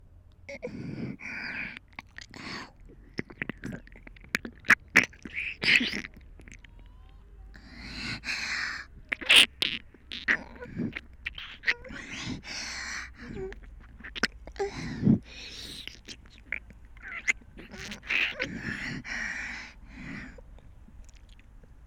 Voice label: 公衆の面前でエッチな耳舐め…, ASMR

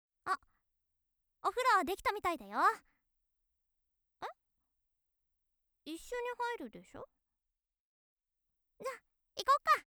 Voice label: 元気JK おふろだよ

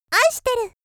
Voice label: 「愛してる」, 少女, ASMR; 元気な少女

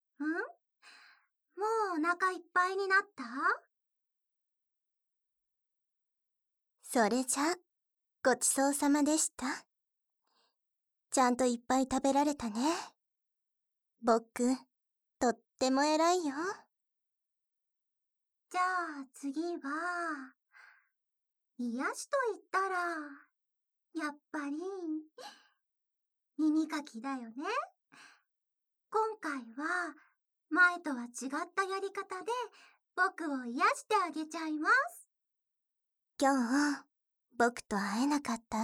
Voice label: 耳かきでスッキリちまちょうねっ、ナメナメ; 【バイノーラル】らぶ×らぶ援交ナースに挟まれ癒しえっち～バブみ看病プレイでいつまでも入院してたくなる音声【あまあま中毒注意!】

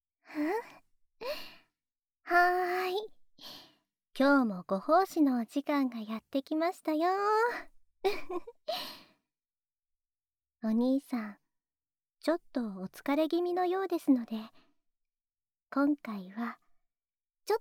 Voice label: お耳……くちゅくちゅってイジっちゃいまーす♪ ASMR

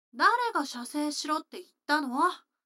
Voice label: 女の子台詞

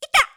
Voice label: "痛ッ", 驚く少女

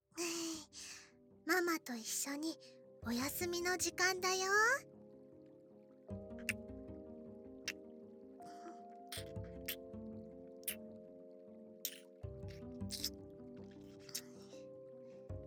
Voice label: 添い寝（癒しBGMループ視聴向き･左に反転）; 菜々子ママ

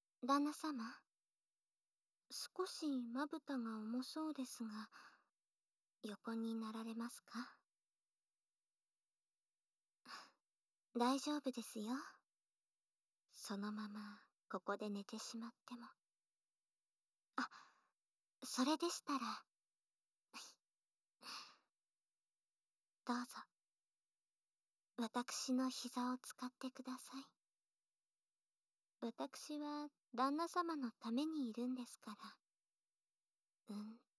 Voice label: 耳かきしながら、恋の和歌を, ASMR

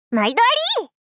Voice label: まいどありっ！少女大阪弁×中音域～高音域

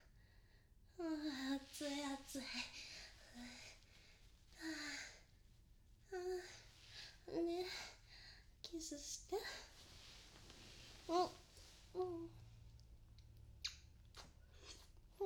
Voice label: ボイスドラマ「ピロートーク」 バイノーラル

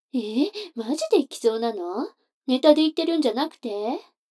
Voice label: 女の子 台詞

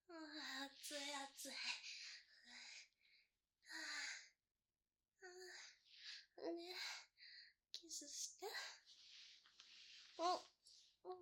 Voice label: ボイスドラマ「ピロートーク」, バイノーラル, ASMR

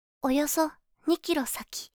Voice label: およそ2キロ先, カーナビ 案内音声; カーナビ(で使えるかもしれない)案内音声

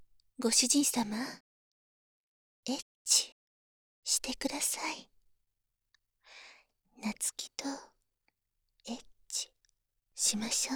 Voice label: エッチしよ はにかみ, 左, バイノーラル; ハーレムオナサポ 4人のメイドがご主人様のオナニーをお手伝いするバイノーラル

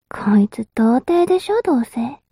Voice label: mob 女子 台詞

